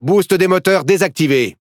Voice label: Speech Consumable Forsage Normal, FR; World Of Warships